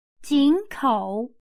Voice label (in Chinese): "井口", chino